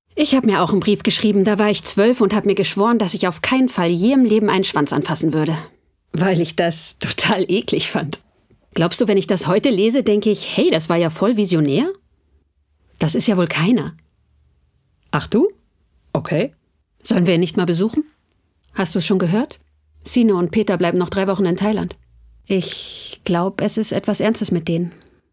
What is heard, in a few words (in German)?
Synchron